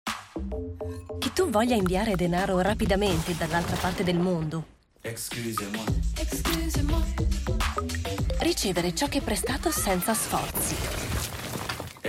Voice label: female italian commercial; revolut; Devine Voices